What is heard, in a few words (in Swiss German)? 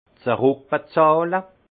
"zeruckbezahle", alsace; vin; rembourser